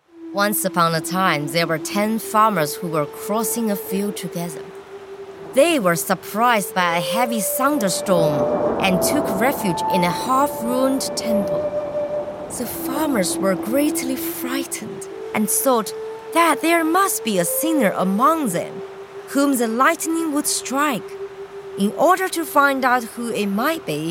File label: english audiobooks